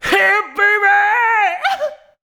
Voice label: "hey baby", shouts; Best Service; Hyper Dance